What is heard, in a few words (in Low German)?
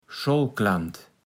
"Schollklant", platt